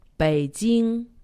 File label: "Beijing", Chinese; Survival Chinese